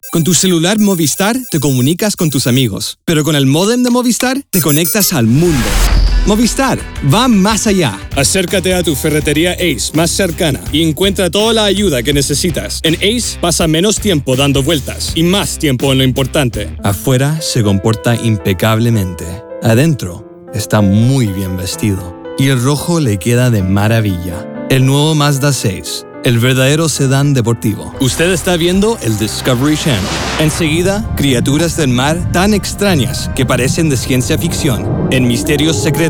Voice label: Commercial Spanish

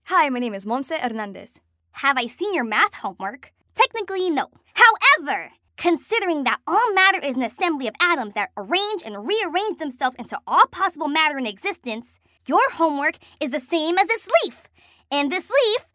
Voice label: Animation

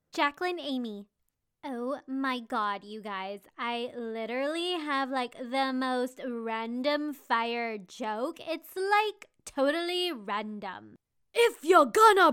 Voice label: Female Animation

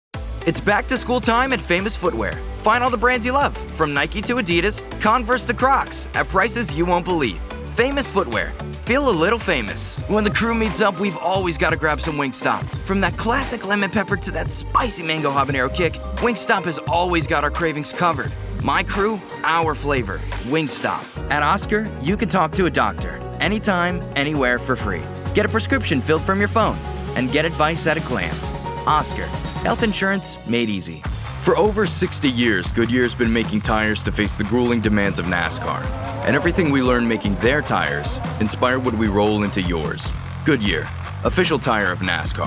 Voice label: Commercial

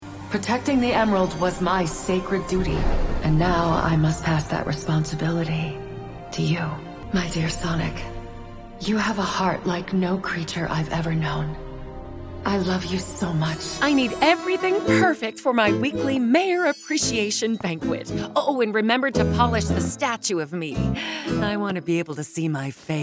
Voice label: Animation

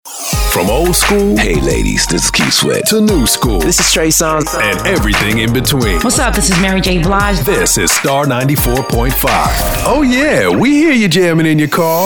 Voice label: Urban AC

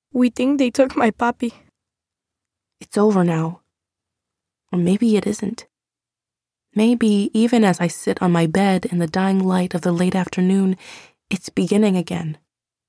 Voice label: Narration

